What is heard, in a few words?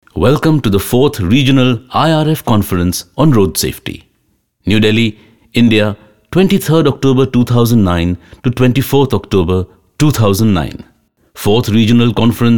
male voice